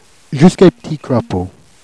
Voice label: "jusques p'tits crapauds", Jèrriais

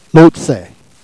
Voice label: "lautse", Jèrriais

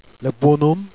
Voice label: "bouanhomme", Jèrriais

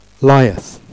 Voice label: "laiesse", Jèrriais

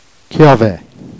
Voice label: "cliave", jerriais

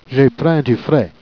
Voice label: "j'ai prins du fraid", Jèrriais

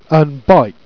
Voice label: "bike", jerriais

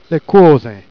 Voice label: "couosin", Jèrriais

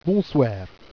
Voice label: "bonsouair", Jèrriais

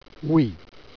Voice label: "oui", Jèrriais

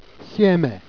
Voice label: "siezme", Jèrriais

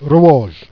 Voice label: "rouoge", Jèrriais

